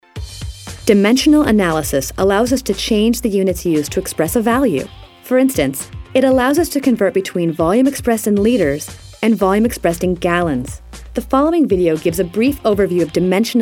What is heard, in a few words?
Biology Kids eLearning